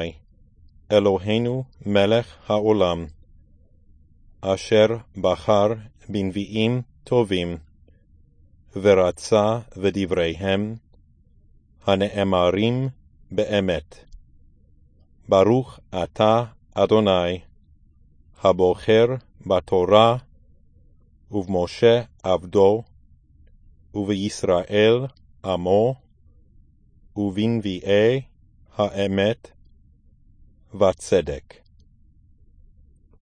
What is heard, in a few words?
Haftarah before speak